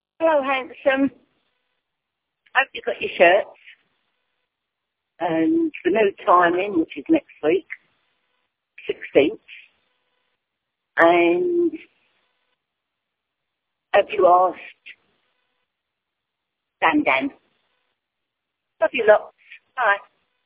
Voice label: voicemail